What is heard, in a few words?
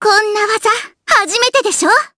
Vox Skill, jp; Rehartna; kingsraid